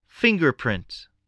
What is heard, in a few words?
"fingerprint", spelling